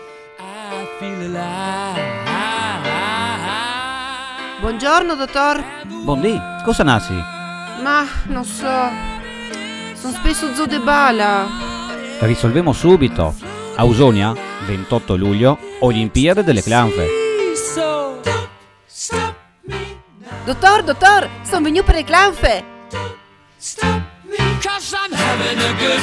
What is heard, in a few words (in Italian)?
radio spot; olimpiade clanfe; radio fragola